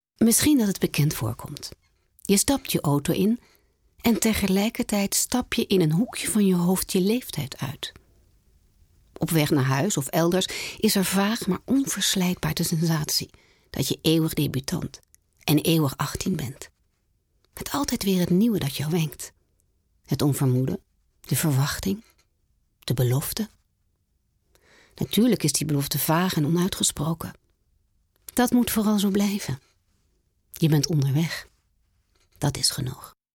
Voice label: Dutch Voice over; Mercedes en NRC